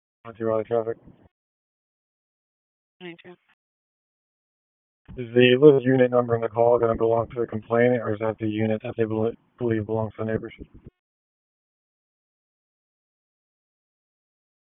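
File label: Police Southwest District Dispatch, p25; Raleigh; wake p25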